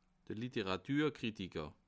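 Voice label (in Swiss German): "literatur", Bas Rhin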